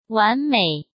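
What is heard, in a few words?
"完美", Mandarin Chinese; chinese flashcards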